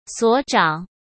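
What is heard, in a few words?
"所长", Mandarin Chinese; hsk; chinese-flashcards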